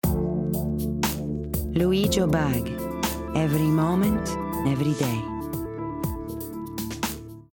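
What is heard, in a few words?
English Italian Accent, female; Liu Jo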